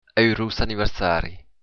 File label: happy birthday, occitan